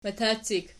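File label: "matacik" nominative, Archi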